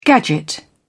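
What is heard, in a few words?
"gadget", British English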